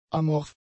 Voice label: "amorph", German; adjectives

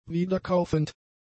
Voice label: "wiederkaufend", German; adjectives

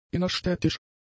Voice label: "innerstädtisch", German; adjectives

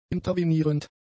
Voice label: "intervenierend", german; adjectives

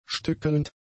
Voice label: "stückelnd", German; adjectives

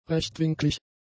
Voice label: "rechtwinklig", German; adjectives

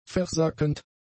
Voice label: "versackend", german; adjectives

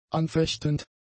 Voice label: "anfechtend", German; adjectives

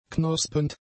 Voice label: "knospend", German; adjectives

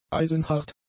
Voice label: "eisenhart", german; adjectives

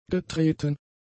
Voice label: "getreten", german; adjectives

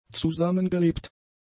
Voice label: "zusammengelebt", German; adjectives

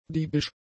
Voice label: "diebisch", german; adjectives

